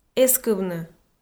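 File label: "эскывны", Komi, female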